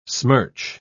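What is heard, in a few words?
"smirch", British English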